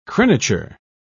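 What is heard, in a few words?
"crenature", British English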